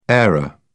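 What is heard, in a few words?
"aero", British English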